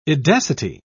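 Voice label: "edacity", British English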